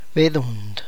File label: "humming bird", tlacochahuaya